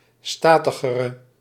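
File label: "statigere", Dutch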